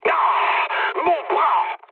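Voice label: damaged pilot, mood low, French; War Thunder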